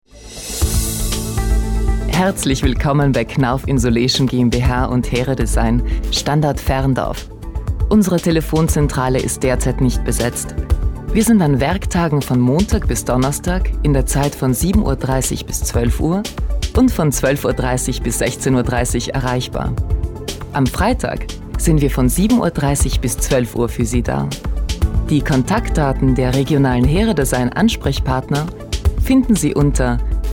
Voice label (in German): Telefonansage